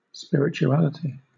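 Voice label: "spirituality", British English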